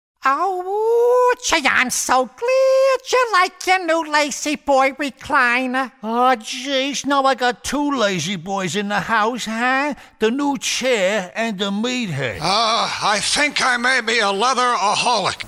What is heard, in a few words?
Character